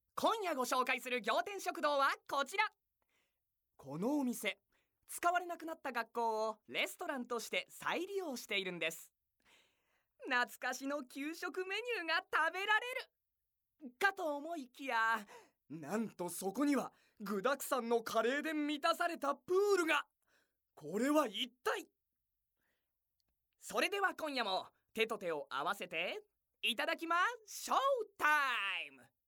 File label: narration